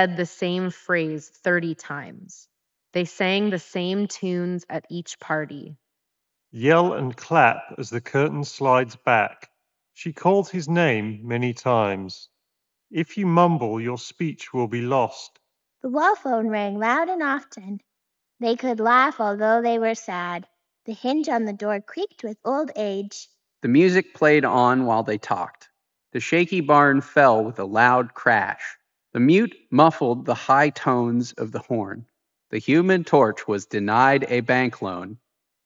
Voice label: MIC reverb; turtle beach; STEALTH 600